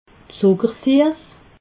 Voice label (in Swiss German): "zuckersiess", alsace